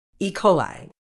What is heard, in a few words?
"E-coli", Medical English